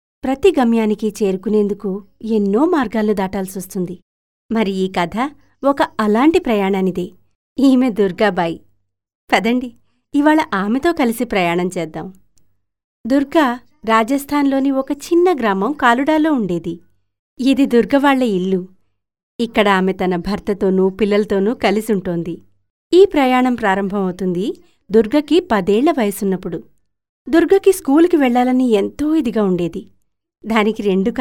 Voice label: Telugu Female

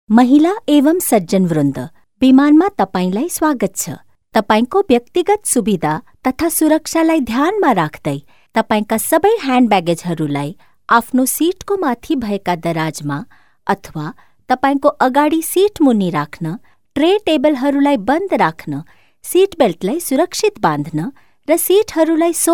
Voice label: Nepali Female

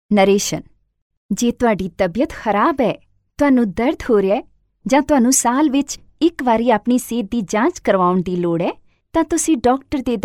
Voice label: punjabi fv

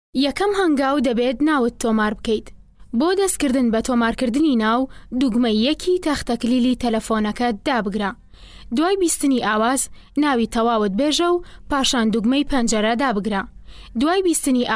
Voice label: Kurdish Sorani Female

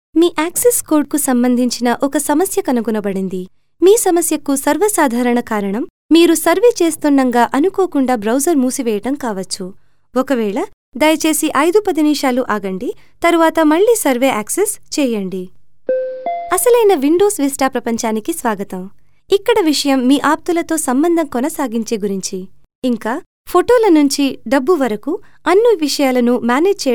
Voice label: Telugu Female

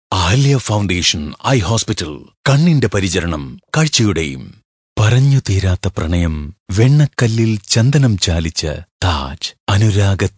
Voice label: Malayalam Male

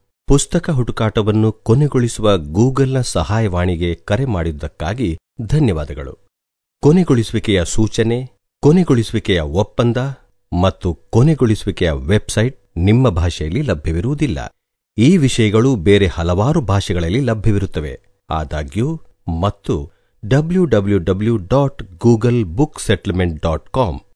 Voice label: Kannada Male

